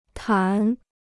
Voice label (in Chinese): "谈", Chinese